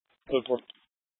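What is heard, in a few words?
Police Dispatch, Scanner audio; Police 1 Dispatch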